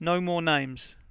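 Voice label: no more names, English, Voice Sounds; Samsung SGH-i310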